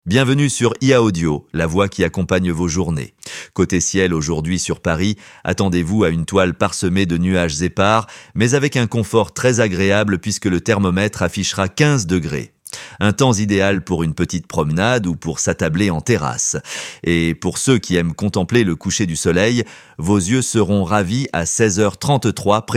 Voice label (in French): Audio Météo; One fm méteo